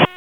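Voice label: Police Spec Ops Tac, radio; Raleigh; Tac 4; p25; wake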